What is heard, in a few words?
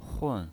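"hun", fourth tone, pinyin voice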